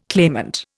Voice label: "claimant", Indian

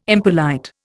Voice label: "impolite", Indian

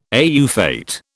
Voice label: "au fait", British

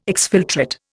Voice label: "exfiltrate", Indian

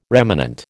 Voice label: "remanent", American

